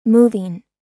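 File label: "moving", American English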